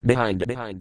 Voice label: mind, Robotic or Stuttery or Spooky